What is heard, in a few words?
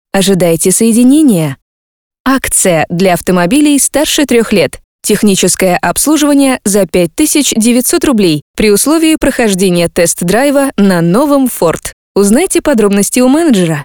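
IVR voice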